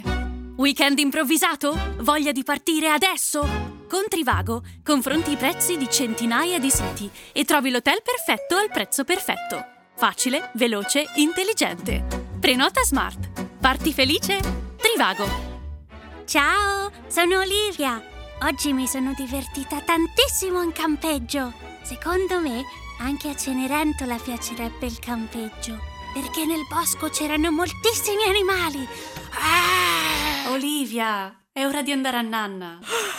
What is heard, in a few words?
Italian